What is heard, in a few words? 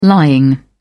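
"lying", British English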